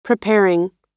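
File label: "preparing", American English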